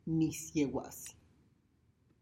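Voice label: wild mint tea, Tlacochahuaya Zapotec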